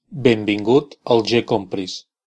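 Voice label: welcome, Brazilian Portuguese; gcompris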